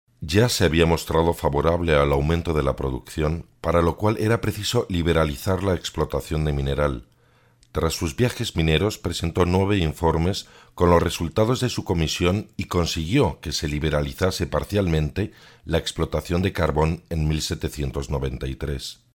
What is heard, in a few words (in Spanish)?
locutor voiceover spanish